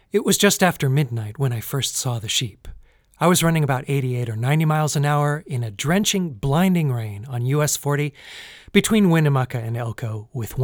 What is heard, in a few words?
electrovoice cardinal